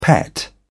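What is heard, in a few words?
"pet", British English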